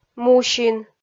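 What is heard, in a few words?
"мусин", Komi, female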